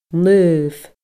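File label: "naomh", Scottish Gaelic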